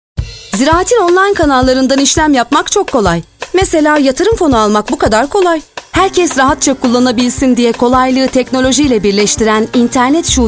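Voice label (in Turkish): reklam, Turkish; ziraat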